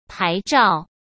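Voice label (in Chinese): "牌照", Mandarin Chinese; hsk; chinese-flashcards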